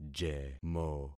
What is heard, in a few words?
"jemo", concat syllables; Affixing experiment